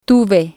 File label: "tuve", Spanish